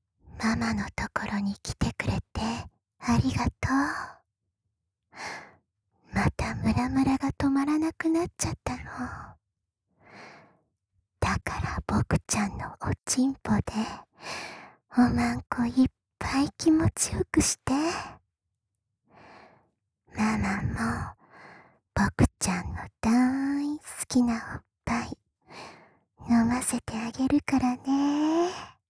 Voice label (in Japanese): 発情お誘い ボイス